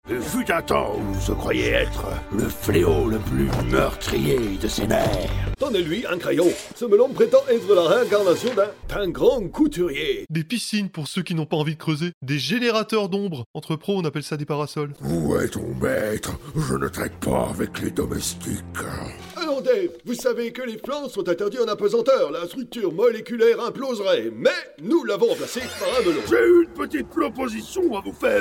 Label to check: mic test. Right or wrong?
Wrong